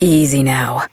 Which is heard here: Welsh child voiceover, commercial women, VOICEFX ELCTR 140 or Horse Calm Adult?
Horse Calm Adult